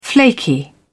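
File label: "flaky", British English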